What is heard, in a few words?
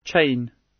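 "chain", British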